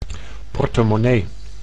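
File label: "portemonnaie", Dutch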